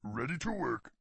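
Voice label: ready to work, game voice; warcraft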